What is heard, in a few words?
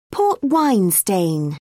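"port-wine stain", British English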